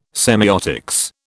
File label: "semeiotics", British